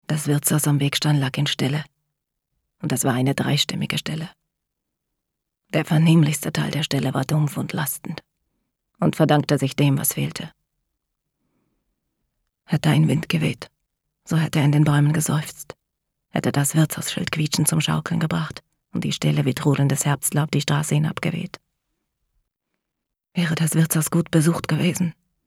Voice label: Hoerbuch Stimmprobe; Der Name des Windes

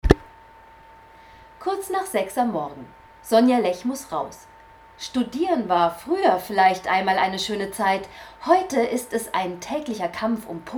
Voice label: Dokumentation

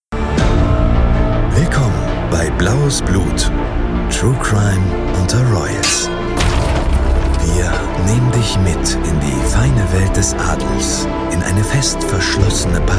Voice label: Trailer; Podimo

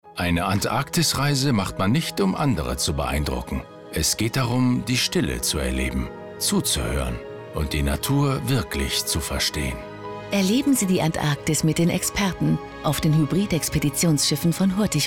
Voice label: Werbung Funk TV; Hurtigruten

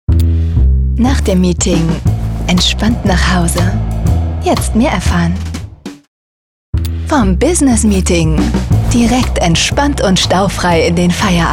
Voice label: TV Spots; Deutsche Bahn; LOFT VOICES

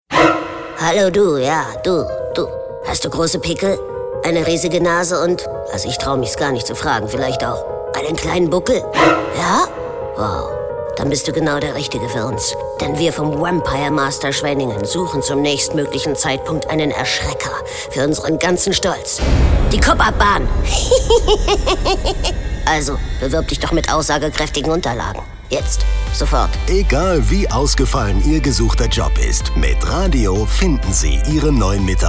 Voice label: Werbung; Jobspot Recruit

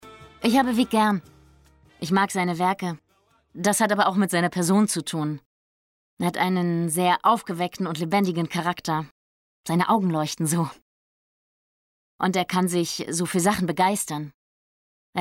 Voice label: brasilien voice over; LOFT VOICES

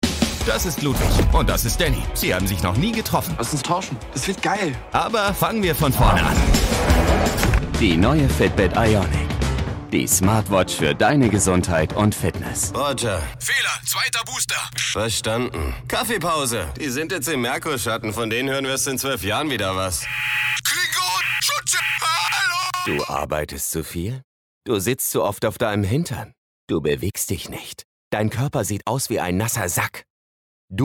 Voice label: Coole Werbungen

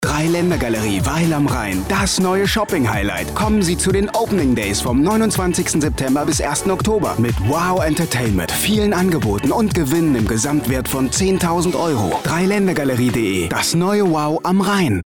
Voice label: deutsch; dreilaendergalerie

